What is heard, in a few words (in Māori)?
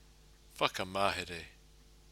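"whakamahere", Māori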